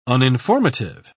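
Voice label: "uninformative", British English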